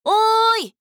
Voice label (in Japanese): 「おーい」, 女の子, オラオラ系少女